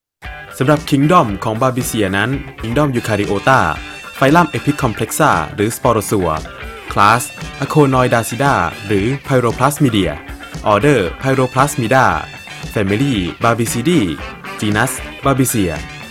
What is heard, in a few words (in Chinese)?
泰语男 外语 小语种